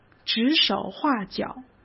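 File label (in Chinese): "指手画脚" Mandarin Chinese; chinese-flashcards; hsk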